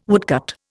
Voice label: "woodcut", Indian